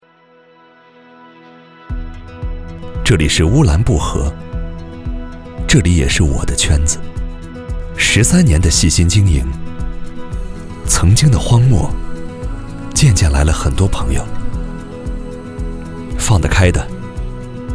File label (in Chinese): 男 TVC; 男4-TVC-特仑苏